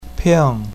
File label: "ping4", Chinese pinyin